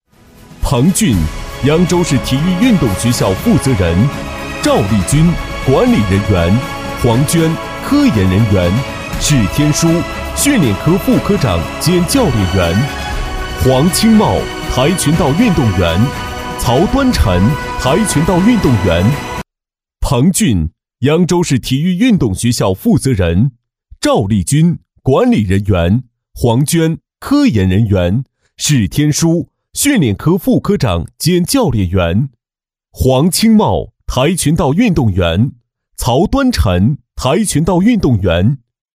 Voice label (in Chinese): 男 人物颁奖 厚重 激情, 年轻气质 大气浑厚; 男D2-人物颁奖【厚重 激情】